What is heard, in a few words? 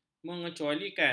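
"mengecualikan", Malay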